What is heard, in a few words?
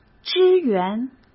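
"支援", Mandarin Chinese; hsk; chinese-flashcards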